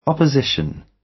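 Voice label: "opposition", British English; The arts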